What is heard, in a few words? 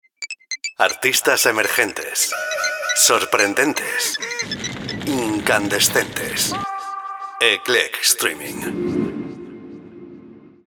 spanish radio imaging